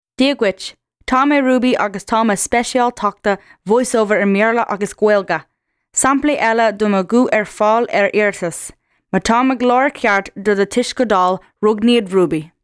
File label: Gaelic